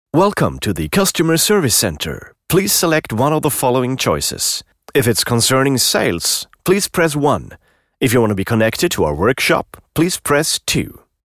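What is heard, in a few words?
english interactive voice response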